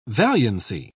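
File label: "valiancy", British English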